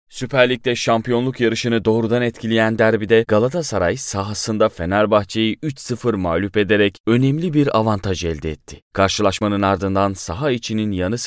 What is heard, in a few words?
HABER news